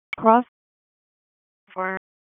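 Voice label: Police Dispatch, p25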